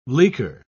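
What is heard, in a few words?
"leaker", English, British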